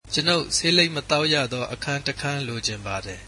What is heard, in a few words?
I would like a non-smoking room, burmese